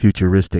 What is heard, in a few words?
"futuristic", HipHop Vox